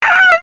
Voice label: ow female